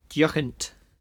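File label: "dyuchint", Cromarty Fishertoun dialect; [dee-OCHnt]; obstinate; Word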